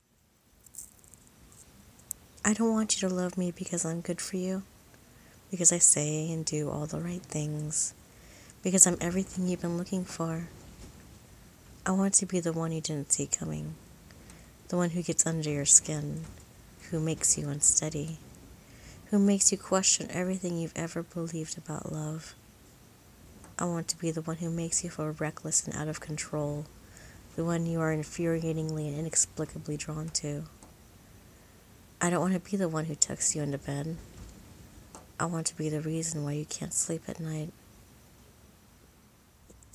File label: poetry; the one by lang leav